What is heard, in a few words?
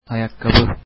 shoes, Turkish